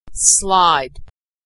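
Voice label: "slide", American English; verb; Consonant Sound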